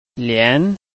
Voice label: "lian2", Chinese